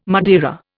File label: "Madeira", Indian